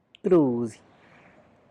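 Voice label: Tlacochahuaya Zapotec; ceremonial cross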